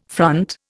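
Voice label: "front", Indian English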